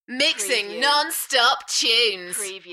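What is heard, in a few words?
British female Mixin non-stop tunes Enthusiastic; beanstalk audio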